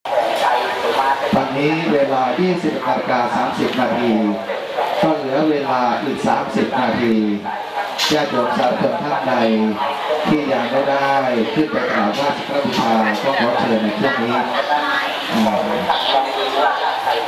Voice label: multi voice ambience and propaganda, Thailand street recordings; tai; 2008 Thailand street recordings